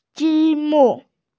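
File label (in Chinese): "ji1mu4", Mandarin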